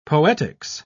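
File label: "poetics", British English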